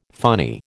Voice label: "funny", American